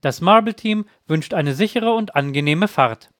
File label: German